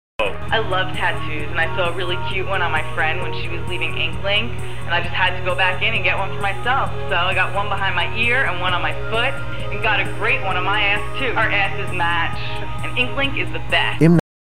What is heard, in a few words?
Radio Spot